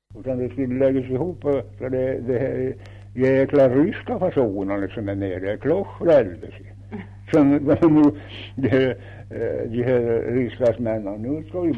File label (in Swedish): Kopparberg, dialekter; Folkminnen